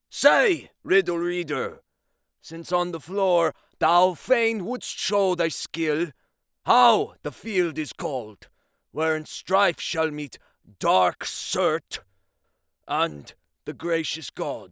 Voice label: Swedish

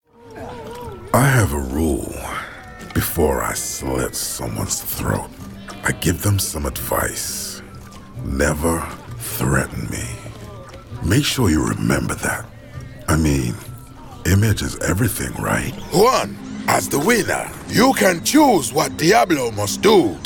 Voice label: Character Video Game; Character Video Game Reel

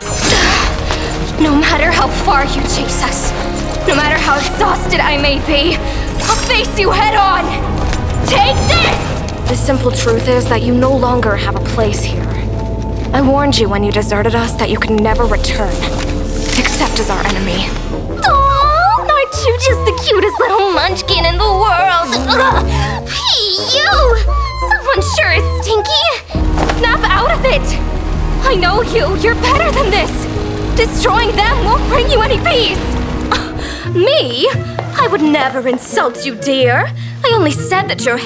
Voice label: Character

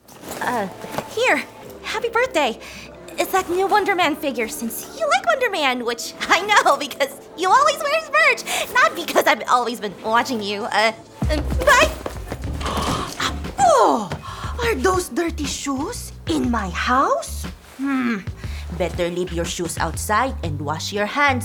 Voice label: Animation

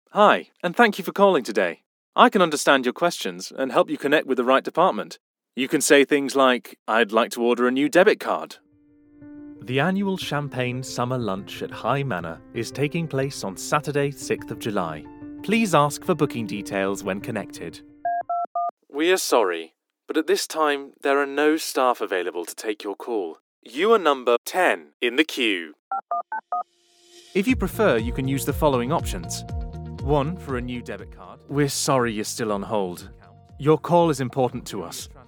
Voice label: IVR, voices

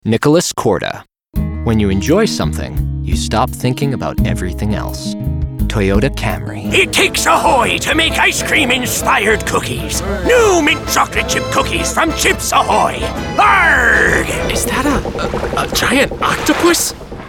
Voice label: Commercial

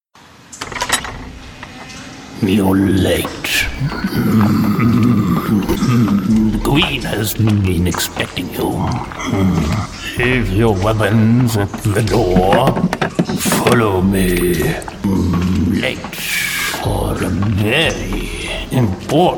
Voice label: character animation gaming, en-US

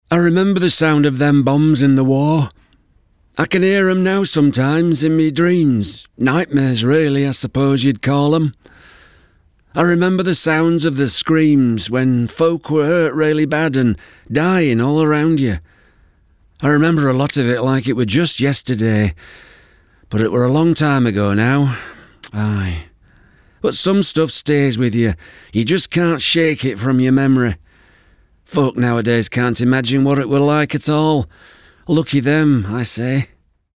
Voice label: Old Northern English accent

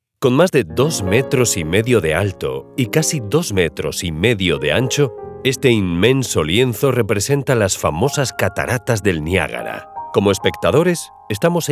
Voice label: AUDIO GUIDE SPANISH EU MALE

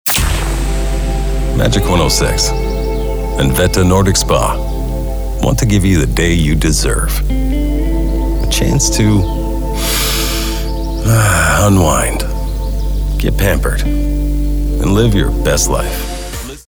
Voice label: Smooth, en-AU

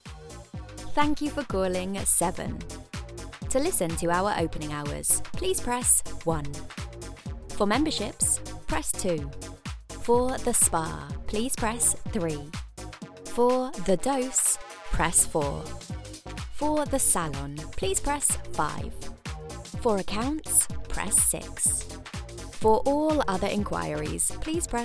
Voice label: Phone

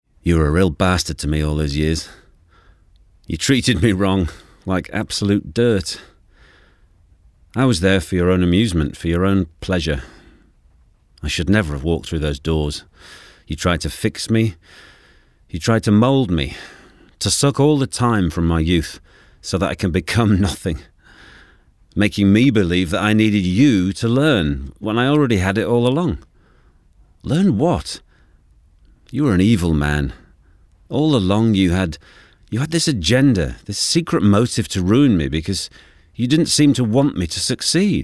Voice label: Northern natural

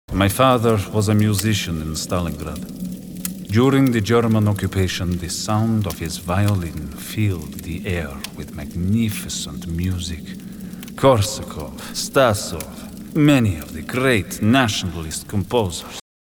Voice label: RUSSIAN

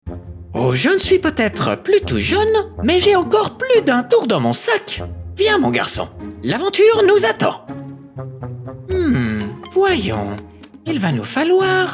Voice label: character cartoon (FR)

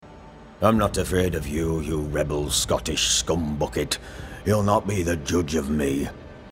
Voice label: Northern, northern english natural

